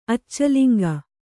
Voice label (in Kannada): "ಅಚ್ಚಲಿಂಗ", Kannada